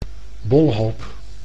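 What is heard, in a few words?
"bolhoop", Dutch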